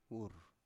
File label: "wur", Biak